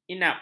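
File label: "inap", Malay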